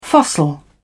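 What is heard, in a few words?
"fossil", English, British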